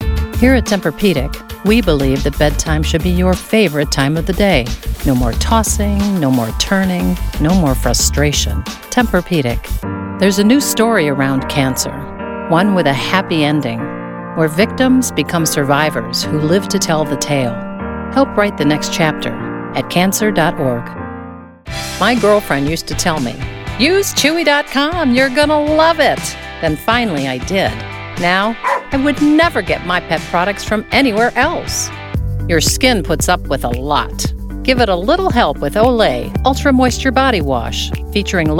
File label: Commercial